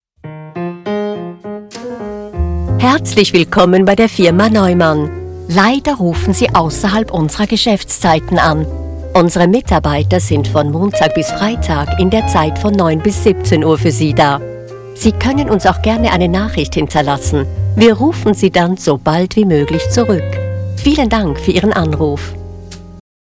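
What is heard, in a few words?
german interactive voice response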